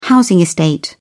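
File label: "housing estate", British English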